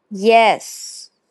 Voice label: voice message